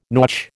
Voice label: "nautch", American